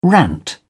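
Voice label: "rant", British English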